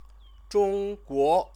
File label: "zhongguo", Chinese